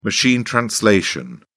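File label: "machine translation", British English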